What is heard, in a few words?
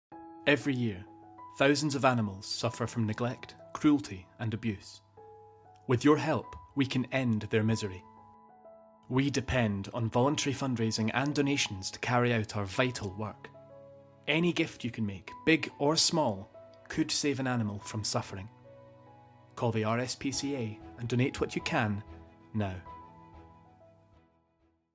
Commercial; RSPCA